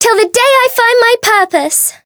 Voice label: Voice variable chant; nona